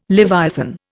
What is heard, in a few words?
"leviathan", Indian